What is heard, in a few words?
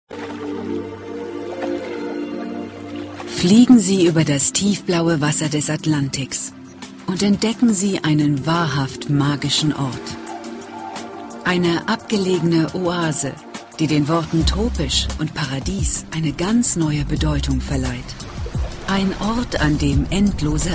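German, female, narration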